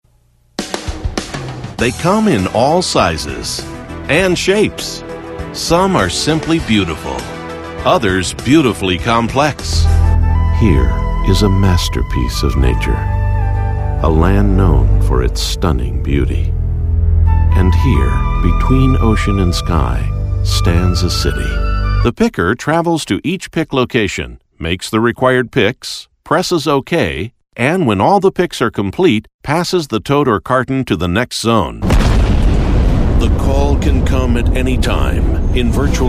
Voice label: Narration